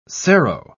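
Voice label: "serow", British English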